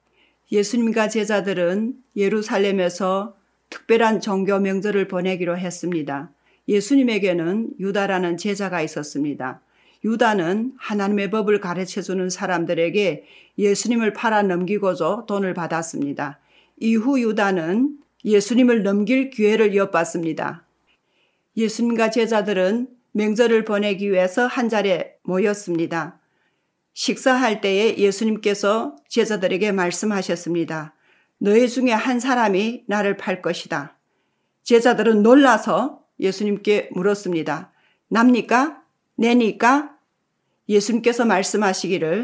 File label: Korean; Yesunim ui Choehu ui Manchan; Jesus Last Supper